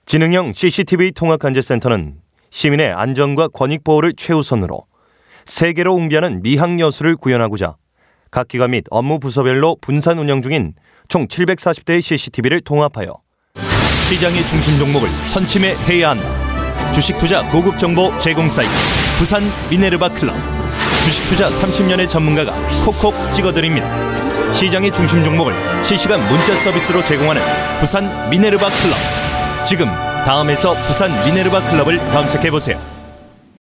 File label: Korean Male